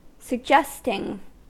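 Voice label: "suggesting", US English; pronunciations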